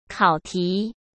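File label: "考题", Mandarin Chinese; hsk; chinese-flashcards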